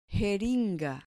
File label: "jeringa", Spanish